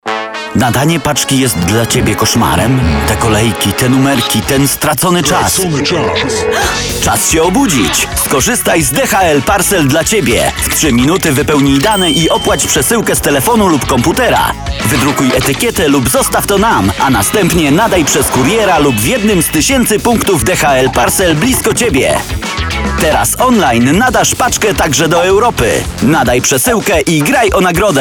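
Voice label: Polish, male, commercial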